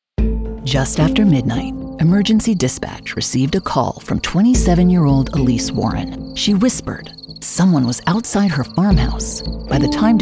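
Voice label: Documentary TV Narration, American Female Voice Actor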